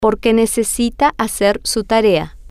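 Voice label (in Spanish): Porque Necesita Hacer Tarea, spanish, female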